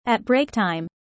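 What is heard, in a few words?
"at break time" spoken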